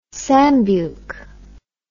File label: "sambuke", English